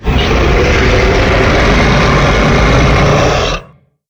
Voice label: Zombie